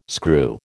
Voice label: "screw", American

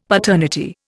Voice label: "paternity", Indian; Dictionary

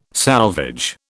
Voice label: "salvage", British

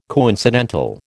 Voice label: "coincidental", American

